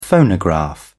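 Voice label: "phonograph", British English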